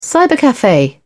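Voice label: "cybercafe", British English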